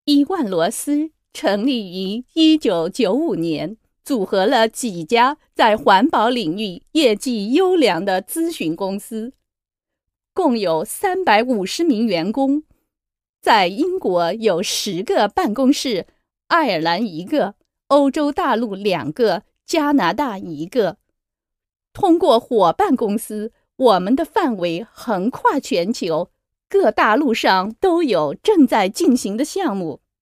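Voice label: Chinese, Corporate; GoLocalise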